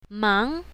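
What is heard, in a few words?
"mang2", Chinese pinyin, south, female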